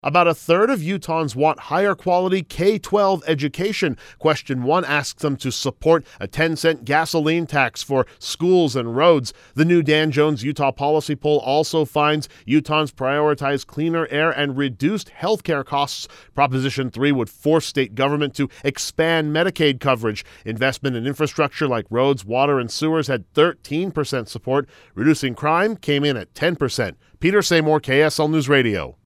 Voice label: VOTER PRIORITIES VOICE PSA